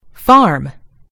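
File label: "farm", British English; gcompris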